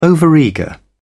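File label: "overeager", British English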